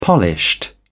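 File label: "polished", British English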